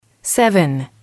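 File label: "seven", English, female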